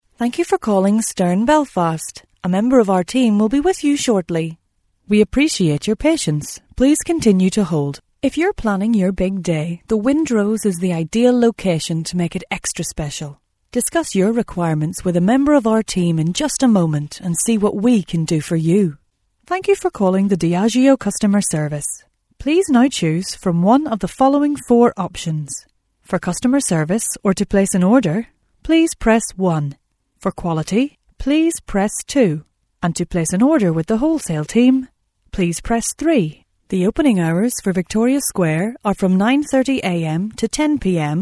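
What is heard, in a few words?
English Northern Irish, female, IVR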